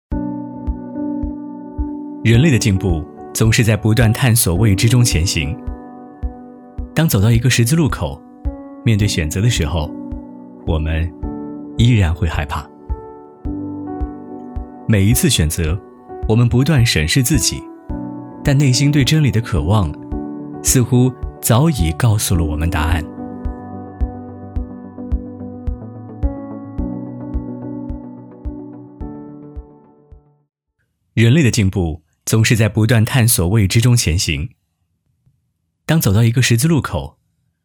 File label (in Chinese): 男 旁白独白; 三峡大学电气与新能源学院